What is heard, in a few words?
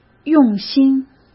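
"用心", Mandarin Chinese; chinese-flashcards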